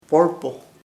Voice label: wood, chamacoco; madera